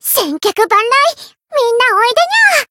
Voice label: variable chant, jp; nekoko

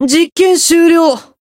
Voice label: Voice finish jp

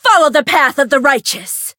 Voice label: chant; anaberu

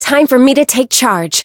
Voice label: chant; isuka

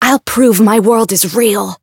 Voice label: variable chant; mayu

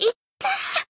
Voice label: damaged jp

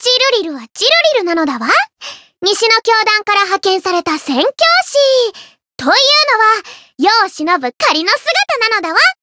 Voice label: story jp; thillelille

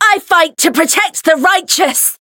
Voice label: chant

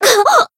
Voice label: Voice damaged jp

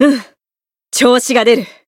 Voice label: growth, jp

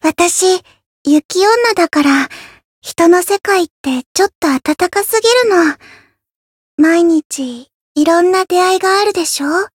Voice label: Voice story jp; yukino

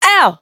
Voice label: Voice damaged; hoozuki

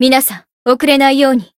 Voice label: limitbreak, jp; felmina

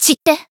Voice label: Voice finish, jp; sophia

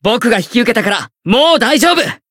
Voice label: variable chant, jp

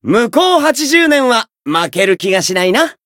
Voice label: win, jp

